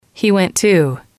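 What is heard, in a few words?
he went to, English, female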